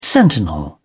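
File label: "sentinel", British English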